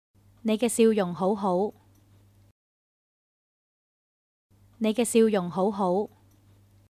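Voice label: Your smile is wonderful, Chinese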